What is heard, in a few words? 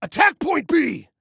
ATTACK, Male